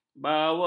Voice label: "bawa", ms

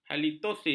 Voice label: "halitosis", ms

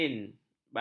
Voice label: "batir", ms

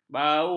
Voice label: "bau", ms

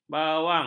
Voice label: "bawang", ms

